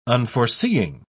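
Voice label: "unforeseeing", English, uk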